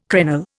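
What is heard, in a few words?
"crenel", Indian English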